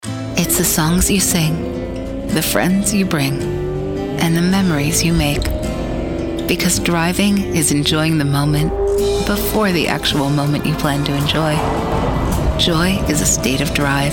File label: Warm Intimate Anthemic; VOLKSWAGEN